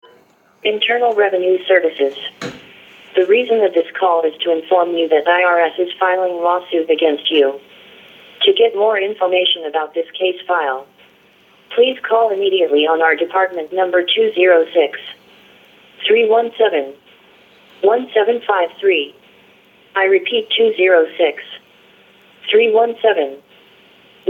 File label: IRS Phone Scam